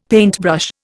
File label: "paintbrush", Indian; Dictionary